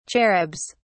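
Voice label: "cherubs", British English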